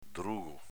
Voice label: "drugo", dialetto veneto